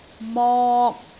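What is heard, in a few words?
"mok3", Cantonese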